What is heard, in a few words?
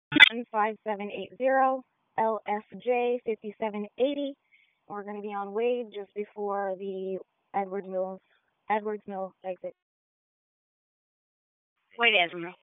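Police District Dispatch, p25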